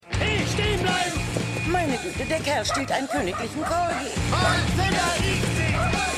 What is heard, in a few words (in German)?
Polizisten Aeltere Dame, Stimmproben